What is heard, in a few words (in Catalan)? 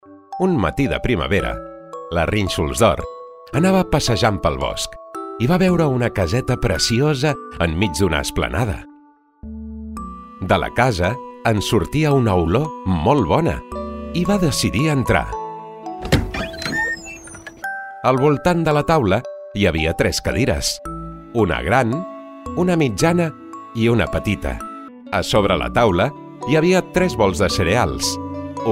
CONTE INFANTIL Catala